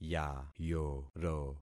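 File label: "yayoro", concatenated syllables; Affixing experiment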